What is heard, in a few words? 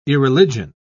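"irreligion", British English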